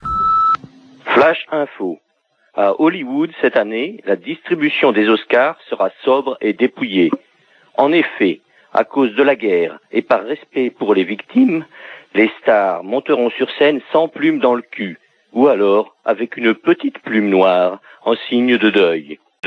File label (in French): Irak Oscars repondeur